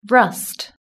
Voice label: "rust", british english